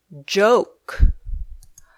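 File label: "joke", English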